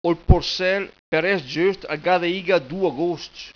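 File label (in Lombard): Ol porsel per èss giöst, al gà de iga du agoscc., bergamasco; PROVERBI BERGAMASCHI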